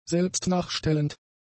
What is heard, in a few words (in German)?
"selbstnachstellend", German; adjectives